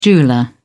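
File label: "jeweller", British English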